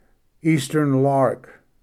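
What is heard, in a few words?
"eastern lark", English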